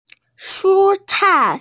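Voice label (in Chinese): "shu1cai4", Mandarin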